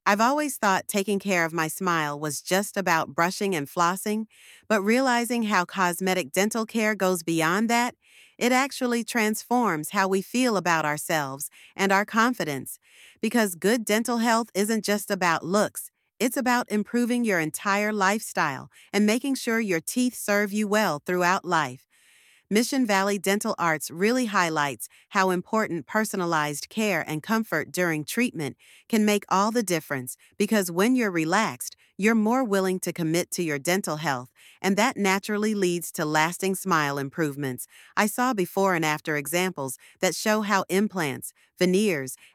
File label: voiceover; Dental Implants Benefits; Mission Valley Dental Arts; Explain the implant procedure benefits and share before-and-after visuals; Kaylin AI